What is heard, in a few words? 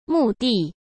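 "墓地", Mandarin Chinese; chinese flashcards; hsk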